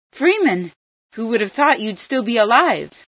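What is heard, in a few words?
freeman alive, female scientist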